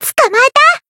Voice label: finish, jp